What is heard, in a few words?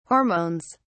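"hormones", British English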